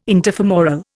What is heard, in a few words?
"interfemoral", Indian